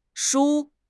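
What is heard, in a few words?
"shu1", Chinese; 汉语口语新时代-初级下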